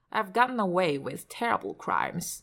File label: glottal t